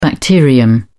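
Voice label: "bacterium", British English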